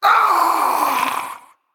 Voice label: Male Naga Death